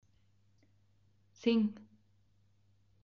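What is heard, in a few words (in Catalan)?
"cinc", Catalan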